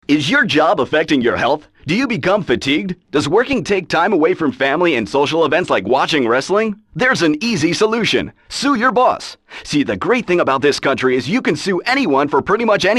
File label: commercial; GTA3 Radio Stations - Commercials